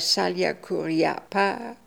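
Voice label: "Saliakooriapa", pronunciations; Fourth Way; Beelzebub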